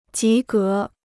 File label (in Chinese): "及格", Chinese